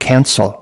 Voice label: "cancel", US

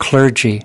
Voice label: "clergy", US

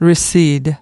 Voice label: "recede", US

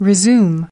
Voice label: "Resume", US

